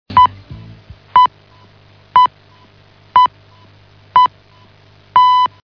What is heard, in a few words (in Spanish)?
radio hora